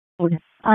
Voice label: EMS ALERT, p25

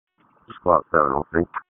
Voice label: PS TAC, p25; Wake

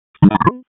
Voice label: WC EMS ALERT, p25; wake

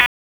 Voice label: Raleigh Police Southwest District Tac, p25; wake

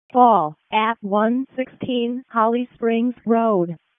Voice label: EMS ALERT; wake

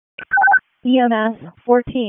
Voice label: EMS ALERT; p25; wake

